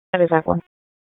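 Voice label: Raleigh Police Northeast District Dispatch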